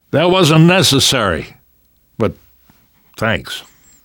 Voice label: thanks, namvet, survivor voice; Left 4 Dead 2; left4dead2 dlc3